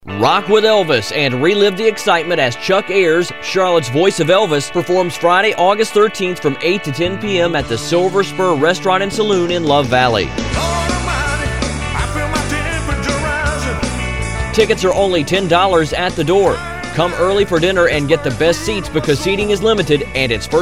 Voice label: radio commercial; Elvis